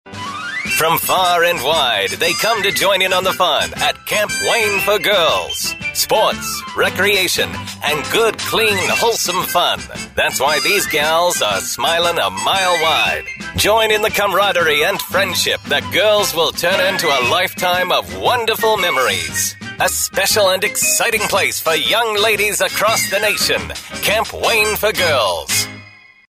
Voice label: 1950s NEWSREEL announcer voiceover